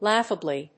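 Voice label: "laughably", English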